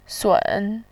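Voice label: "sun", Mandarin Chinese, third tone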